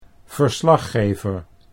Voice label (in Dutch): "verslaggever", Dutch